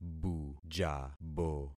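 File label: "bujabo", concatenated syllables; Affixing experiment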